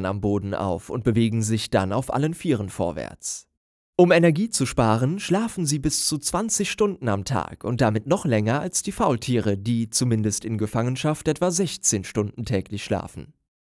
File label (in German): dokumentation koalas